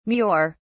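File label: "mure", British English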